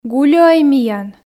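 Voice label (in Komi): "гулюӧй миян", Komi, female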